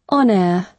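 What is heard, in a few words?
"on-air", British English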